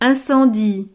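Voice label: "incendie", French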